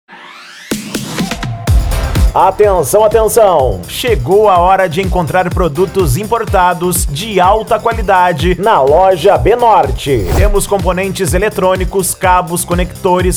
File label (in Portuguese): Locutor; Loja De Eletronicos B Norte Importados Itacoatiara AM